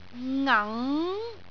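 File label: "ngang2", Cantonese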